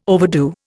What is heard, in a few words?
"overdo", Indian